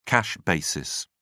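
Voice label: "cash basis", British English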